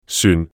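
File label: "syun4" Cantonese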